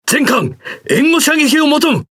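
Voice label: Japanese Speech Quick Commands Enemy Ship; World Of Warships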